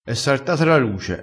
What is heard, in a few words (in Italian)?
"fa confonde", vocabolario dialettale